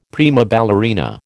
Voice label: "prima ballerina", American